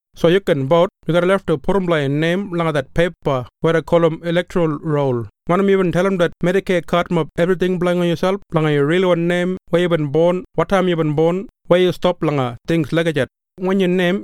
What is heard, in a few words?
Kriol